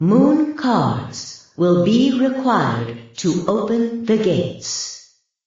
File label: announcer; ab mooncards